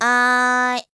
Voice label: Bored Hi; Skyward Sword; zelda

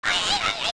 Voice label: Twinrova Argue; OOT

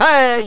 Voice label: Man Hi

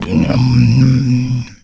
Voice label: Mumble; Zelda

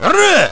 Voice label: Man "Arru"

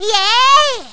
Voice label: Girl "Yay"; Girls: "Yay!"

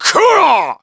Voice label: Scold; Skyward Sword

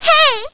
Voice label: Girl "Hey"

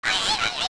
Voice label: Twinrova Argue; OOT

